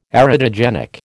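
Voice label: "erotogenic", American English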